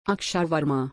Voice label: pronunciation guide